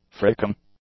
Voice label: "fricking", English; adjectives